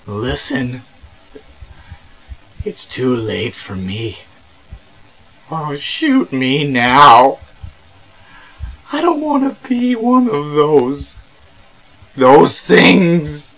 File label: dying guy; svencoop; hunger